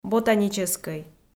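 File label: "ботаническӧй", Komi, female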